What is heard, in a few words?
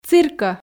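"циркӧ", Komi, female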